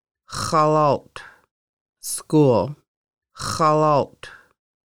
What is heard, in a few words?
School, conversational Lushootseed